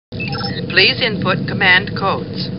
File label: Star Trek Computer Voice; StarTrekLCAR